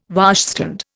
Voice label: "washstand", Indian

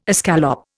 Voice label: "escallop", Indian

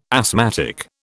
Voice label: "asthmatic", British

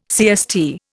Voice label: "CST", Indian

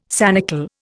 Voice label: "sanicle", Indian

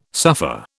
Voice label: "suffer", British

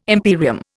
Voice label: "imperium", Indian

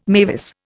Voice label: "mavis", Indian

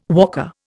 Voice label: "walker", Indian

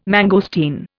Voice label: "mangosteen", Indian

